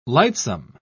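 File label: "lightsome", English, British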